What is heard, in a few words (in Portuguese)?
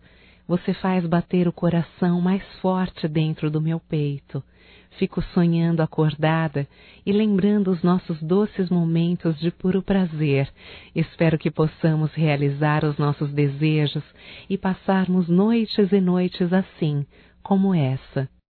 AGRADECIMENTO ROMANTICO, Só Voz, fem; Telemensagens; AGRADECIMENTOS